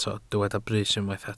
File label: "brys", Welsh